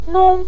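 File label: "nong" neutral tone, Mandarin Chinese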